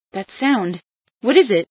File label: what is sound, female sci